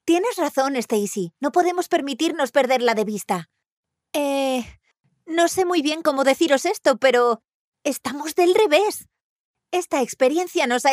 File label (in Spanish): locutora dibujos animados